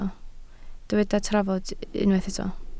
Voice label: "trafod", Welsh

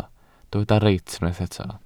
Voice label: "reit", Welsh

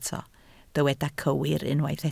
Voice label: "cywir", Welsh

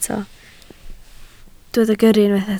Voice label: "gyrru", Welsh

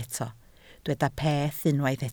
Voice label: "peth", Welsh

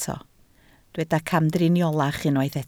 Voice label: "camdriniolach", Welsh

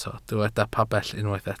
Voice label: "pabell", Welsh

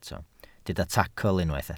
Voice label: "tacl", Welsh

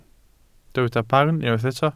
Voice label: "barn", welsh

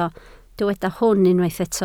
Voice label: "hwn", Welsh

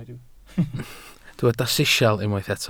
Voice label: "sisial", welsh

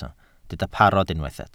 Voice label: "parod", Welsh

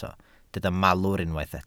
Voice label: "malwr", Welsh

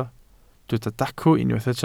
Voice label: "dacw", Welsh

